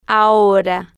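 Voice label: "ahora", Spanish, female, slow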